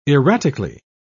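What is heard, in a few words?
"erratically", British English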